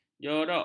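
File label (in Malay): "jorok", Malay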